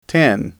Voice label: "ten", English